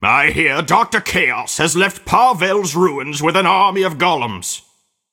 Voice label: cinematic voice; lineage2